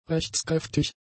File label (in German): "rechtskräftig", German; adjectives